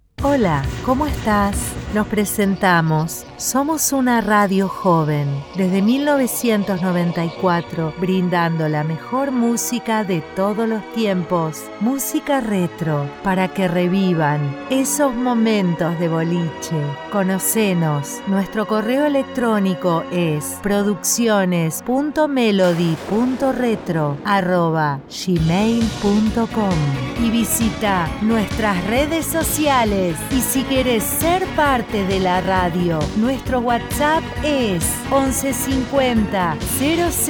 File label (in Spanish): institucional voz en off rioplatens; institucional melody retro escribi el guion grabe y edite voz en off rioplatense